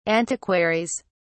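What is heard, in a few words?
"antiquaries", British English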